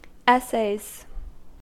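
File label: "essays", US English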